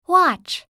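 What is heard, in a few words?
"watch", English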